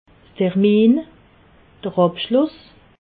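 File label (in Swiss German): "termin", Alsatian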